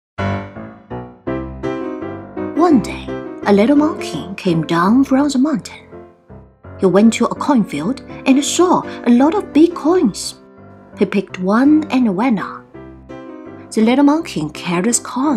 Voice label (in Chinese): 英文 绘本 样音